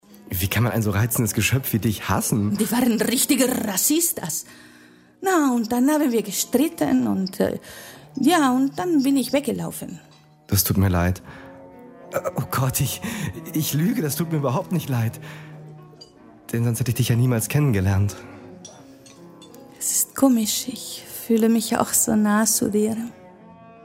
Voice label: radionovela; nico restaurant